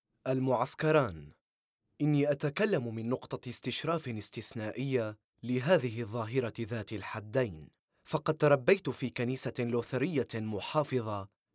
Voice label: arabic syrian m narration; voice demos